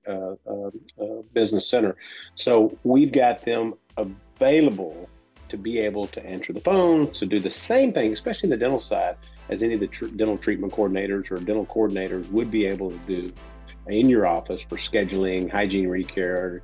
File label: Bi Lingual Spanish Speaking